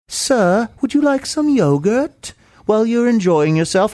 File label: saying sir would you like some yogurt english butler